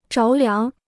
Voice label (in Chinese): "着凉", Chinese